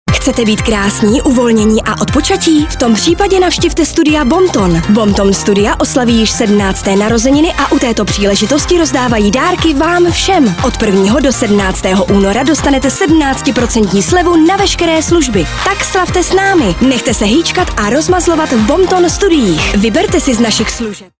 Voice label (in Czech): czech voiceover locutora checa